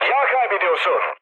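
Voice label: damaged oil, mood low, Turkish; War Thunder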